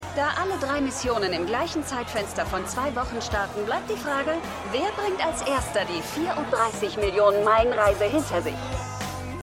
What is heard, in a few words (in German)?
Reporterin; Karla Dunn; For All Mankind